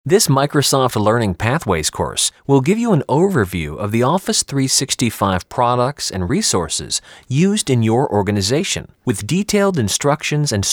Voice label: eLearning